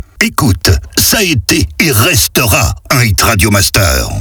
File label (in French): Voix off speed; Radio Master; Ca été un Hit